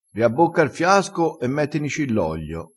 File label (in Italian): "riabbocca", vocabolario dialettale